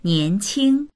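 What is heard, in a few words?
"nian2qing1", Mandarin Chinese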